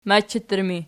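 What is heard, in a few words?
"machattur", ergative, Archi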